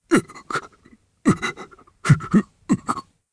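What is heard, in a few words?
Vox Sad, Japanese; voices heroes jp; Dakaris; kingsraid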